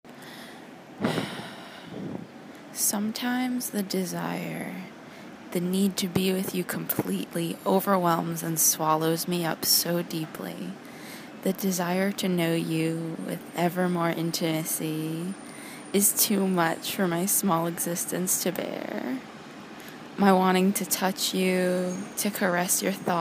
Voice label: Erotic Poetry; F4A